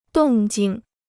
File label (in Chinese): "动静", Chinese